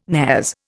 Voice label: "nares", Indian